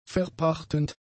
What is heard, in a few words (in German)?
"verpachtend", german; adjectives